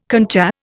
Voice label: "concha", Indian